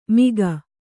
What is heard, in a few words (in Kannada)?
"ಮಿಗ", Kannada